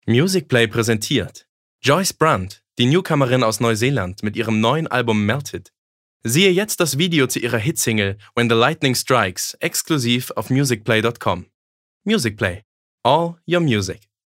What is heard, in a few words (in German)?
Freundlich; MusicPlay